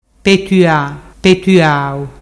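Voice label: "petua petuau", Ligurian dialect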